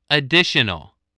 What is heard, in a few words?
"additional", English voice